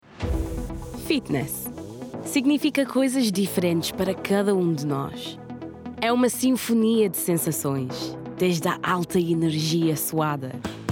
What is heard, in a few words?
Portuguese; Nike